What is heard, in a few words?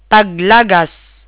"fall", Tagalog